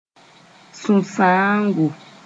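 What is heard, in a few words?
"sũ-sãango", Mooré; sadness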